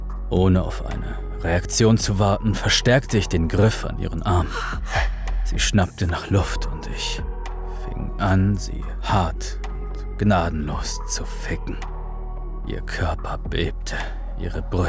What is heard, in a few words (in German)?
Dark Romance Hörbuch sinnlich dark romance; Verbotene Lust